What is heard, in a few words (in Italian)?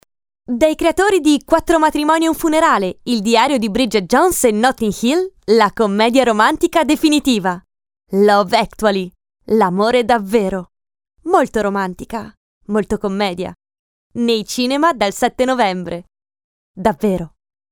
Italiano Commercial Cinema